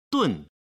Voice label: "dun", Chinese

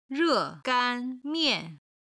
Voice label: "re4gan1mian4", chinese

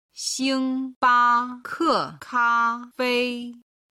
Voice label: xingbakekafei, Chinese

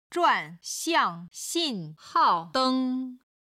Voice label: "zhuan4 xiang4 xin4 hao4 deng1", chinese

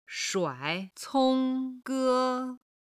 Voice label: "shuai3cong1ge1", Chinese

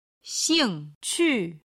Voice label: "xing4qu5", chinese

